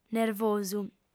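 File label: "nervosu", female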